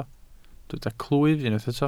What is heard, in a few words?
"clwyf", Welsh